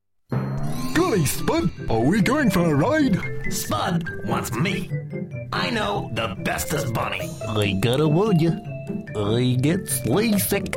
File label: english british animation